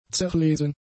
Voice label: "zerlesen", German; adjectives

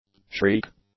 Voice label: "shriek", English; nouns

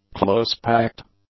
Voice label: "close-packed", English; adjectives

